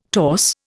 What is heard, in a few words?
"torse", Indian